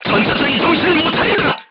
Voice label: voice message commander contused, korean, crew tanks